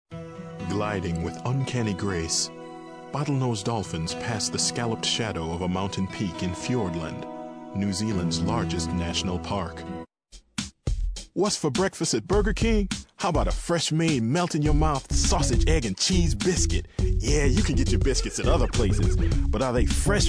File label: American Long Form, Male